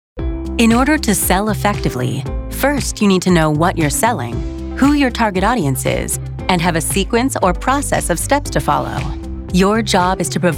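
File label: narration female corporate narration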